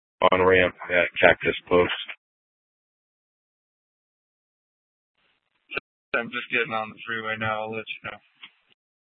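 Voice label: police dispatch, Scottsdale PD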